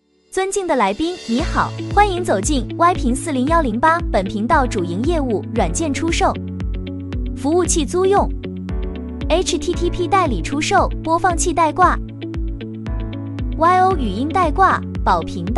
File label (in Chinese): 广告语